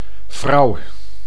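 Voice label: "vrouw", Dutch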